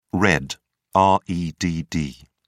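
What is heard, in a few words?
"redd", English, British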